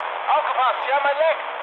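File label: German damaged water mood med, speech; War Thunder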